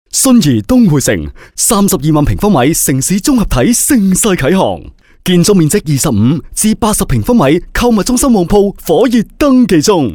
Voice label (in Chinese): 男粤 广告 促销; 粤语; 东汇城